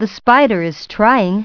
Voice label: story narr; Putt Time

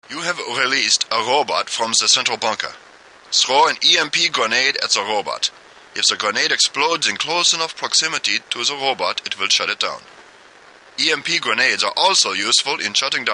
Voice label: unused combat training arena transmission

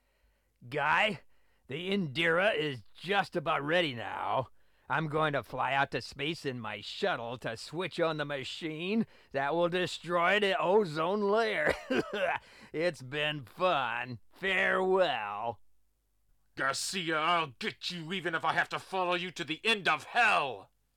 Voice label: English; Last Alert; TurboGrafx CD

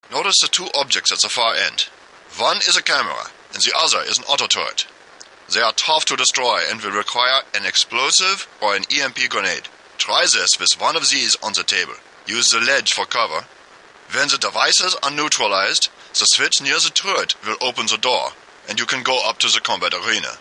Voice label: explosives training transmission; DX

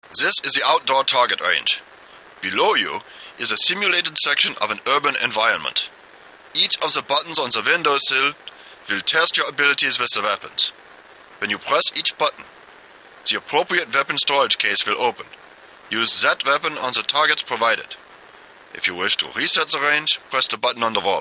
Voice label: Unused Outdoor Target Range Transmission

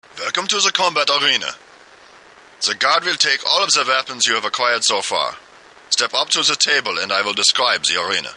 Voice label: unused combat training arena transmission

